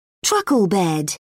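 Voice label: "truckle bed", British English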